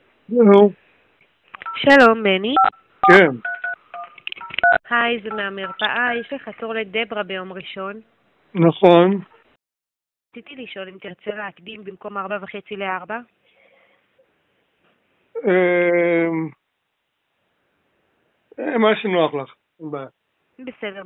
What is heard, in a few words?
call recording